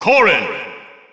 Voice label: English Announcer; Corrin; SSB4 SSBU